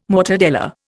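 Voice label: "mortadella", Indian English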